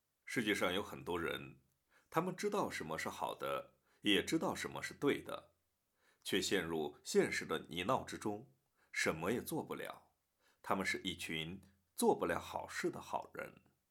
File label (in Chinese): 公益片试音